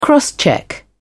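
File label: "crosscheck", British English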